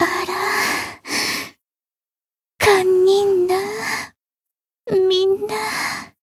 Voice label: retire, jp; hoozuki